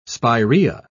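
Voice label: "spiraea", English, British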